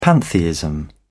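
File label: "pantheism", British English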